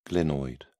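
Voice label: "glenoid", British English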